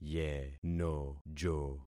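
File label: "yenojo", concatenated syllables; Affixing experiment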